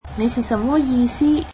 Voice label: cantonese What do you mean